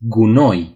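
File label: "trash", Romanian; gcompris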